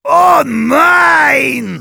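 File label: negative vocalization, German; Heavy; "Oh neeeinn!"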